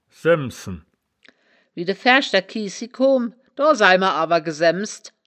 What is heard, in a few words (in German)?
"saemmsen", wittlicher woerterbuch; das wittlicher woerterbuch; woerterbuch vertonung